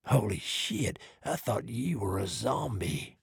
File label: trader male dislike greeting